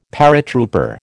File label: "paratrooper", American